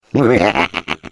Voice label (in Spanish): Defective Turret defect laugh; sp sabotage factory; es